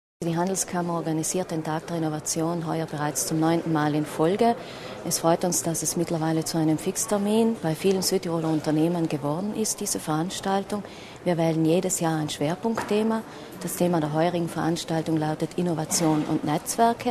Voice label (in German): Interview